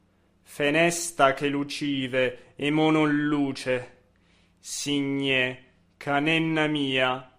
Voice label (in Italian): espressivo; Fenesta che lucive